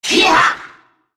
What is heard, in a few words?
ultimate effort; FizzSkin04